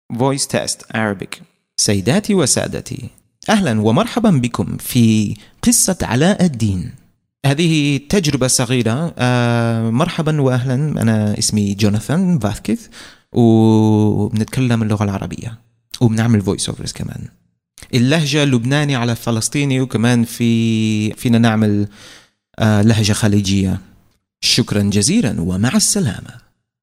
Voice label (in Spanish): arabe locutor